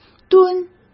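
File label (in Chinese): "吨", Mandarin Chinese; hsk; chinese flashcards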